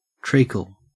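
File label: "treacle", Australian English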